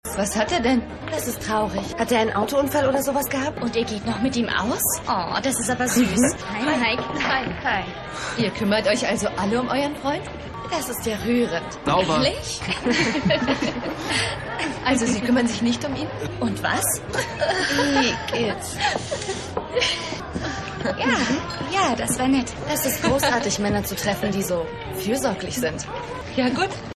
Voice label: Frau; Rescue Me

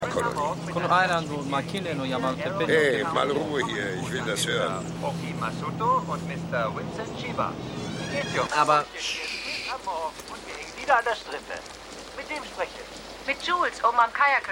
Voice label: Radio; Jules; AusgerechnetAlaska

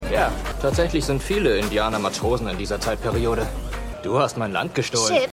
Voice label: Matrose, Stimmproben

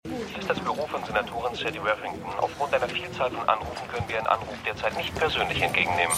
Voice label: Telefonstimme; THE MORNING SHOW (DF 2019)

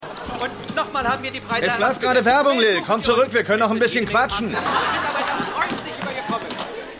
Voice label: Werbesprecher; Keine Gnade Fuer Dad

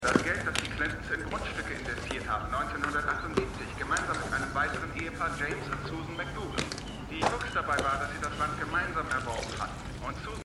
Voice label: Mann Radio; AmericanCrimeStory

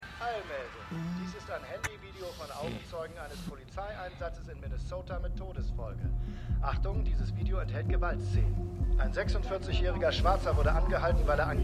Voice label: TV Nachrichtensprecher; The Good Fight

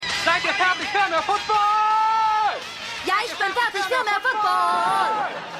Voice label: TV Stimme

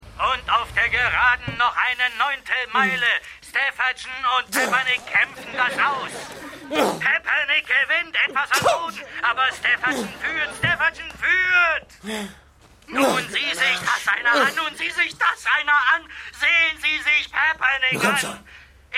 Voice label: Pferderennensprecher, Stimmproben; Seinfeld

